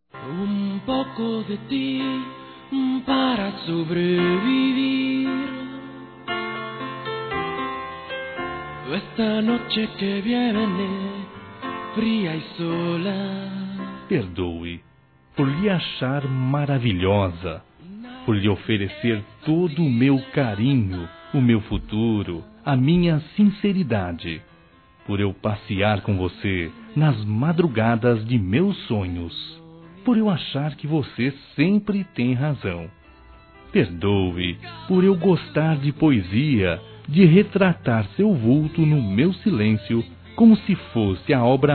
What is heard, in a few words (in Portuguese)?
Desculpas Por Te Amar - Masc; Telemensagens 2017